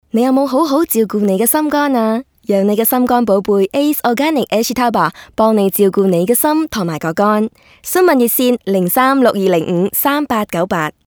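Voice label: Cantonese announcer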